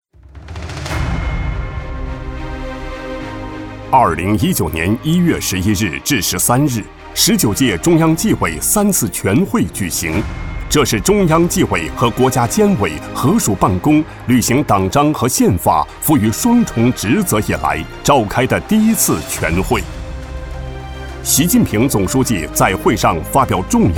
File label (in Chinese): 男 反腐 汇报